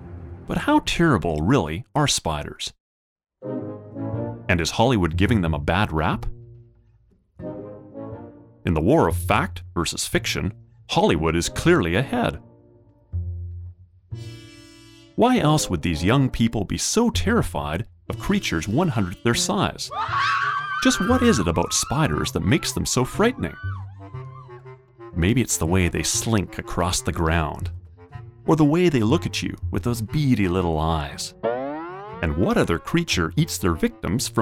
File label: Narration